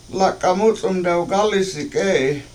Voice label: lAk7a7 mU7c7im dewgAlisi k7E7i She Is Ten Years Old, Washo